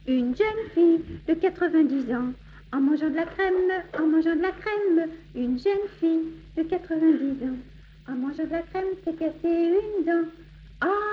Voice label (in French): jeune fille